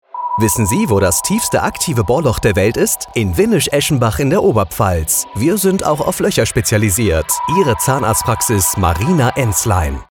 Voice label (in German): Funkspot Zahnarztpraxis